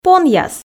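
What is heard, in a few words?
"понъяс" Komi, female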